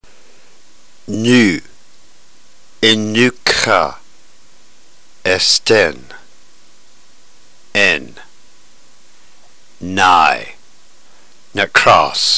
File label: drill, ntgreek